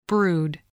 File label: "brood", spelling